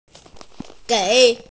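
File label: "gei3", Mandarin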